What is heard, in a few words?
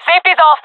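attacking, jungle female; Counter Strike Model Pack; CS:GO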